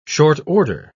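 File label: "short-order", British English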